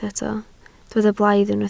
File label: "blaidd", Welsh